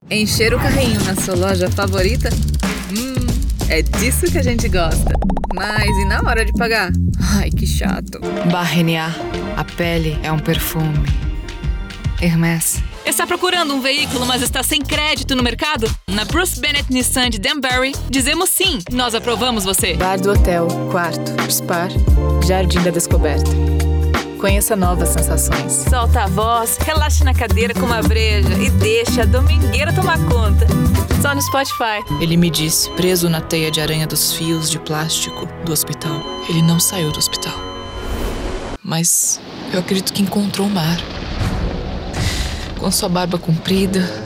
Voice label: female and non binary brazilian portuguese commercial compilation